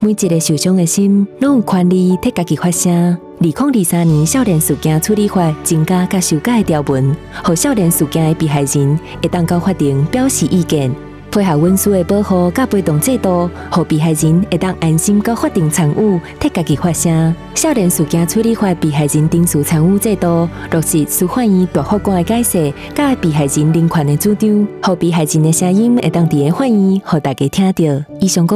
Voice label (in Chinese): 少年事件被害人程序參與制度 臺語; 司法院